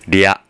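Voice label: "rya", Japanese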